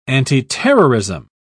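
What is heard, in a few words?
"antiterrorism", British English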